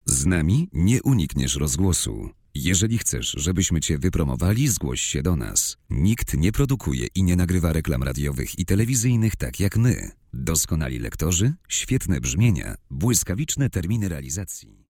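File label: Polish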